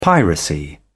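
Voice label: "piracy", British English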